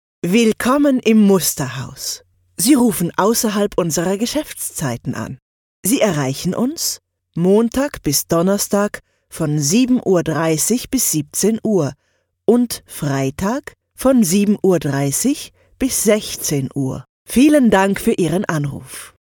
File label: german female